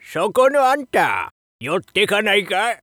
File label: shop oldman, voice